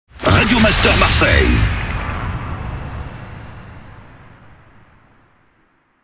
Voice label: Sweeper SPEED